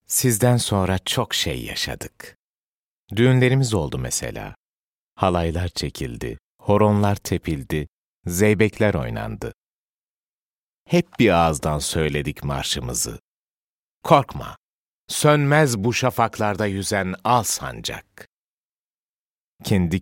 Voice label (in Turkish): duygusal motive, seslendirme